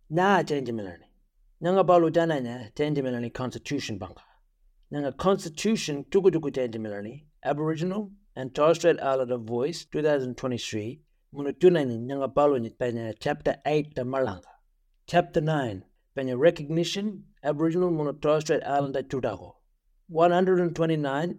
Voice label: Referendum Booklet, Pitjantjatjara; Constitution Alteration